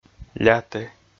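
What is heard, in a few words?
"ляті", Komi, male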